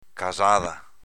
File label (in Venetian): "casada", Veneto